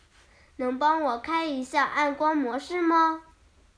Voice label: Chinese Children Speech, Mobile phone